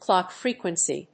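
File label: "CLOCK-FREQUENCY", English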